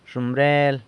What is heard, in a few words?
"zhomrel" hat, San Lucas Quiavini